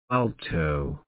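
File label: "alto", British English, male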